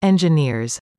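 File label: "engineers", English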